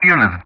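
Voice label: "units", English; Ka-50; DCS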